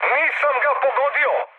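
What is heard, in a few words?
Serbian bomb failure mood high, speech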